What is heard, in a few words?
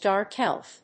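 "dark elf", English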